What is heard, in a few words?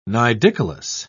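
"nidicolous", British English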